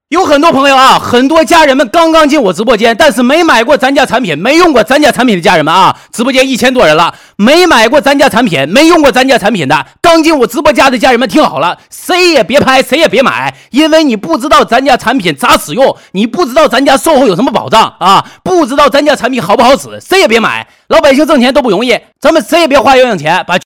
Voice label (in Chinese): 带货